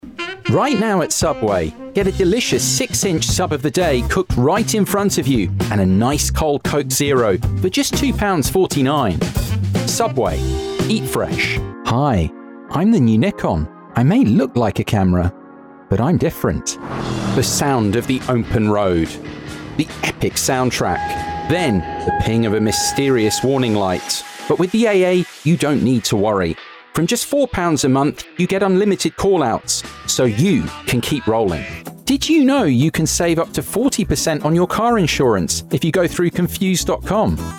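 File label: Commercial, voice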